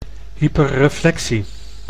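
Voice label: "hyperreflectie", Dutch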